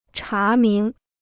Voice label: "查明", Chinese